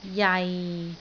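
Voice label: "jai6", Cantonese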